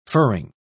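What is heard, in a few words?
"furring", British English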